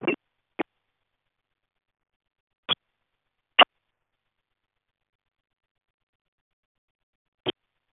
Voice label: Space to Ground; Expedition 39